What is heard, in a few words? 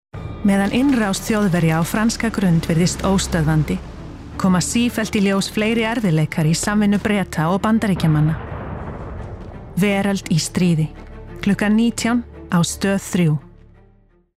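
is promo, Icelandic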